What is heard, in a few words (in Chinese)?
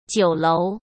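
"酒楼", Mandarin Chinese; chinese flashcards; hsk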